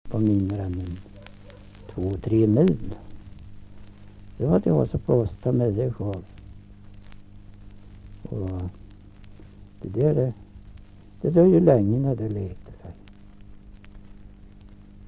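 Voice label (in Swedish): Skagershult Närke, dialekter, Svenska sagor; Folkminnen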